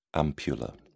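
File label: "ampulla", British English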